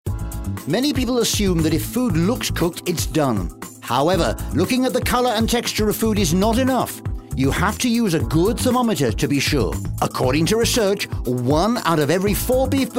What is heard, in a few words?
Commercial